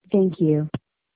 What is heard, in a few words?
voicemail